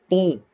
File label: short voyels arabic alphabet